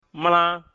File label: "mla", mina